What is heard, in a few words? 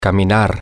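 Spanish, to walk, male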